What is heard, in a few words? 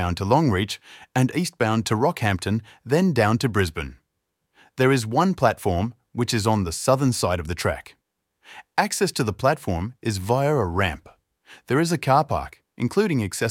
Station map audio description